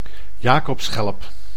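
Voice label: "jakobsschelp", Dutch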